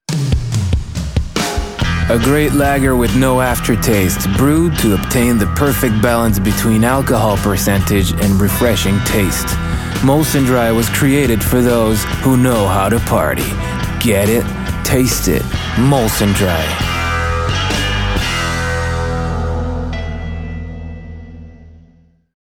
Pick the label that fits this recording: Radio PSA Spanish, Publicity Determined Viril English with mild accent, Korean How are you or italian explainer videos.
Publicity Determined Viril English with mild accent